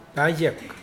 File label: "40", Mokilese